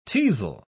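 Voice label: "teazle", British English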